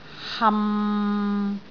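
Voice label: "ham6", Cantonese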